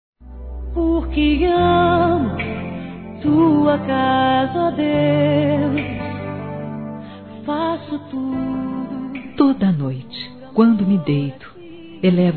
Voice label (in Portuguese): Telemensagens, Aniversários, Fem; ANIV ROMANTICO CASAL; Telemensagens 2017; ANIV. Evangelico